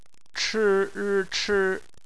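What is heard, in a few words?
"chi", bopomofo, chinese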